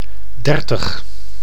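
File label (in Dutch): "dertig", Dutch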